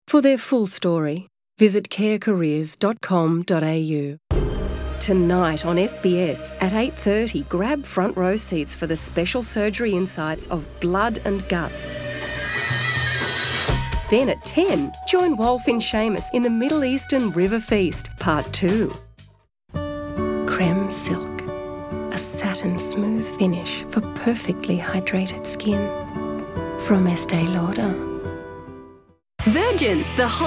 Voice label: australian voice, Australian · British · American · Audio Books